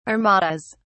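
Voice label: "armadas", British English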